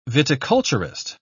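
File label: "viticulturist", English, British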